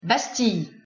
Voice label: pronunciation fr bastille